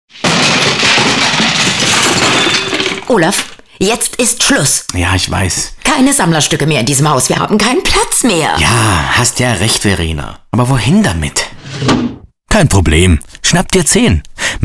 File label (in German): Funkspot